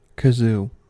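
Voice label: "kazoo", American English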